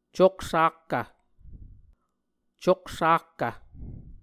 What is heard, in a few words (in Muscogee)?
"coksakkv", Muscogee; Mvskoke Words with Repeated Consonants; Onvkv Ohhvyayices; A Dictionary of Creek/Muskogee; SIL International